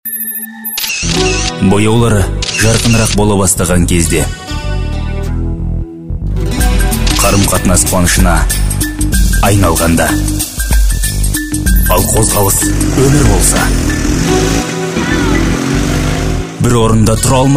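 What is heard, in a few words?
kazakh male commercial; voice demos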